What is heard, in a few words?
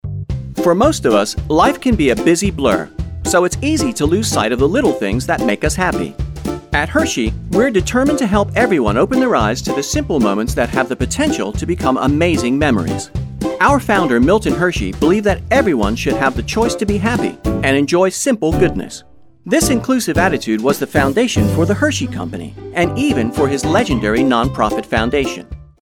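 Mid-Atlantic Male Voice Over; Commercial; Hershey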